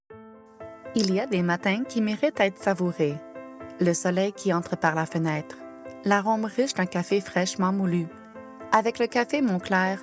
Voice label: FR Commercial